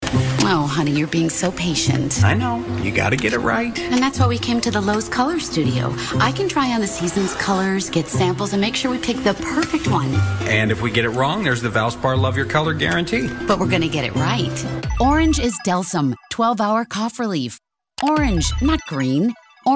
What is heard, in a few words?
commercial